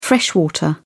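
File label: "freshwater", British English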